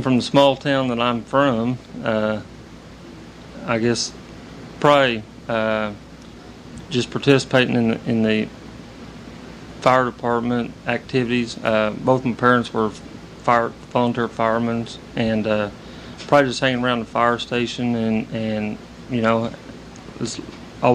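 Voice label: oklahoma, International Dialects of English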